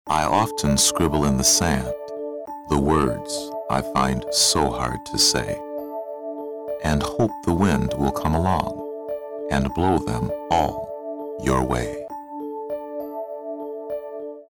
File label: poem